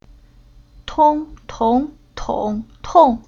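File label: "Tong", Chinese syllable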